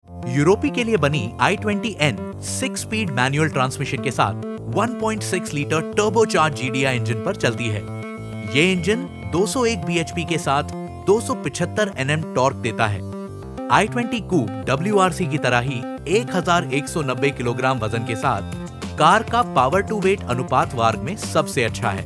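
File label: hindi automotive, male; Hindi Voice Actor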